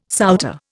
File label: "souter", Indian